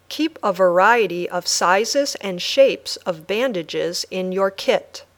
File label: Dictation